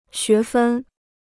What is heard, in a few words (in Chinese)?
"学分", Chinese